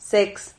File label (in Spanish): "sex", Silabas